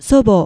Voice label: "sobo", Japanese

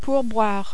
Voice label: "pourboire", French

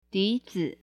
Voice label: "dizi", mandarin

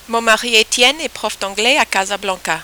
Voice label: rhythm, French

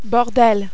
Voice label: "bordel", French

